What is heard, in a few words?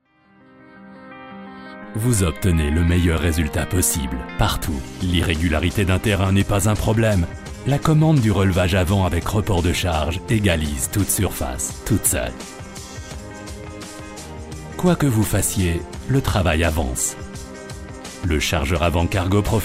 french corporate videos